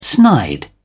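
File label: "snide", British English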